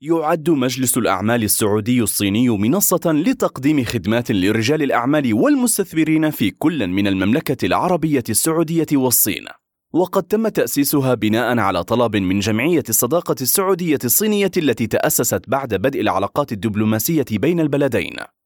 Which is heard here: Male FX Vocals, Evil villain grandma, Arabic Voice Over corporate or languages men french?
Arabic Voice Over corporate